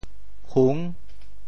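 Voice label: "hung6", Teochew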